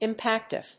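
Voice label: "impactful", English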